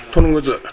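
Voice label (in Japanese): "tonoguzu", 南山形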